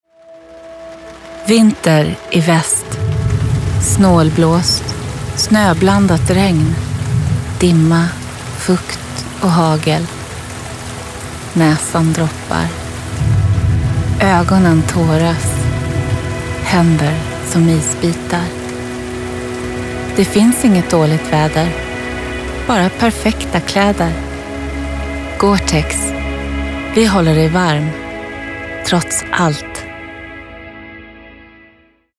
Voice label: se commercial soft